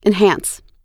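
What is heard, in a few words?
"enhance", English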